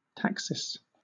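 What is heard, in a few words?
"taxis", British English; pronunciations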